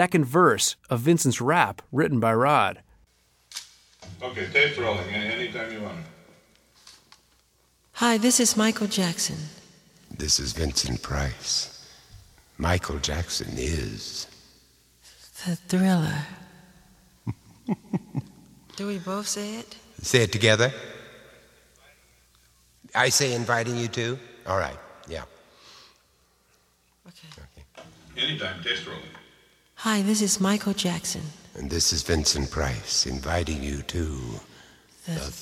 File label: Voice-Over Intro Voice-Over Session